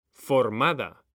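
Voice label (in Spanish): "formada", Spanish; mekanta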